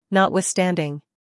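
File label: "humor", British; notwithstanding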